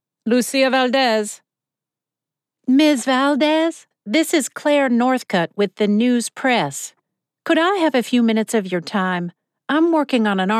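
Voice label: Fiction Mystery Dialog Oklahoma and Mexican American Accents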